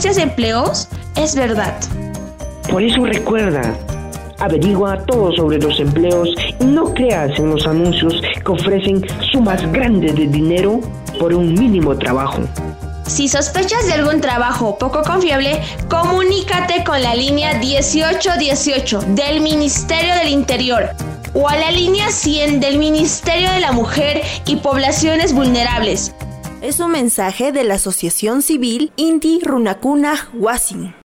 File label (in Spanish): spot radio trata de personas; UGEL